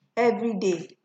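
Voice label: "evriday", Nigerian Pidgin, female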